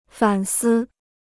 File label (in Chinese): "反思", Chinese